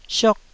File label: "siok4", Taiwanese syllable